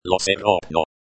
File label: "lo se ropno", lojban